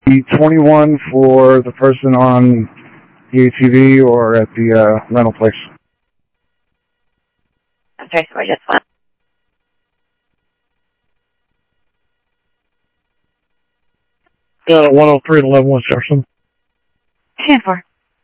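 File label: Lakes Sheriff Lake Patrol, scanner audio trunk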